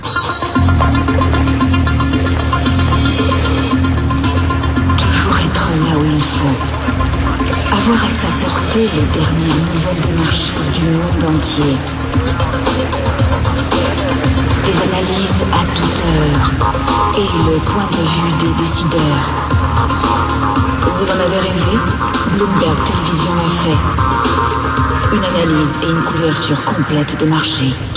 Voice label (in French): Pub TV; Bloomberg TV